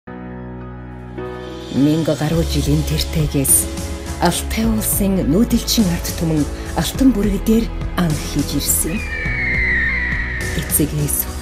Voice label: mongolian voice over